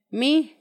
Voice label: pie, Paipai; Vocabulary; INALI

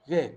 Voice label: "cabeza", Zapoteco Magdalena Ocotlan; Cuerpo; INALI; Haspelmath

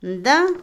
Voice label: jefe, cacique, Otomí, mujer; INALI

